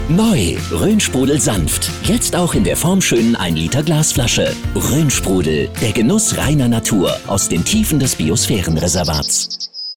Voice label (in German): Freundlich; Fremdtake; Rhoensprudel